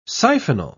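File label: "siphonal", British English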